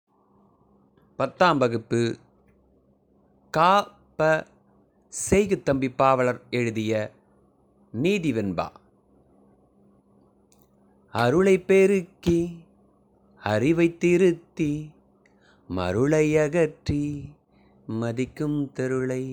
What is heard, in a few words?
tamil memory poem; namma kalvi